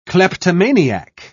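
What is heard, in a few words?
"kleptomaniac", British English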